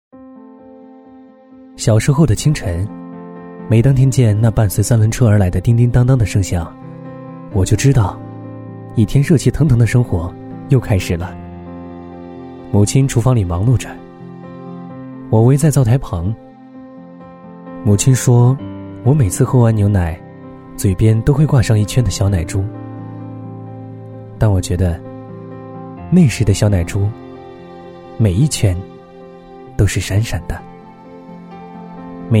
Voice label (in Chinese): 男 走心旁白 温暖走心; 伊利; 质感磁性